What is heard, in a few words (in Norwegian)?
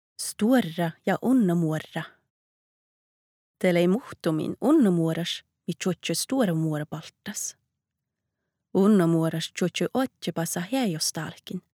nordsamisk, northern sami